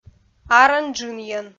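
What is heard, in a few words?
"арӧн-джынйӧн", Komi, female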